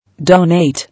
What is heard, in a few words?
"Donate", English